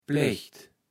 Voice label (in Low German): "Plecht", kaevels platt